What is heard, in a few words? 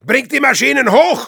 move up de